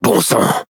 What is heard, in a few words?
Speech Quick Commands Caramba, FR; World Of Warships